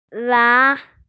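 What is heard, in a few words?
"wan2", Mandarin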